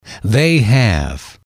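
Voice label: "they have", English, male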